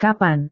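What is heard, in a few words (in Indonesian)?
"kapan", Indonesian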